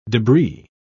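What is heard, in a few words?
"debris", English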